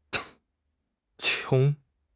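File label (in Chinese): "jia3", Chinese; 汉语口语新时代-初级下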